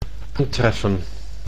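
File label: "aantreffen", Dutch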